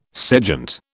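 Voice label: "sejant", British English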